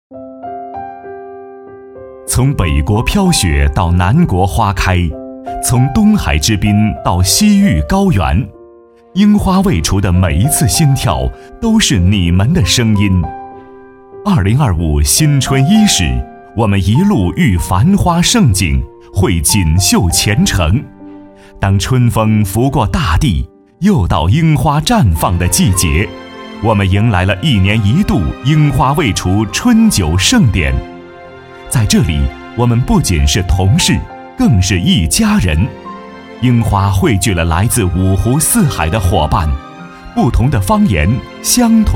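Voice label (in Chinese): 男 温暖活力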